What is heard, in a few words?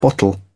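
"bottle", British English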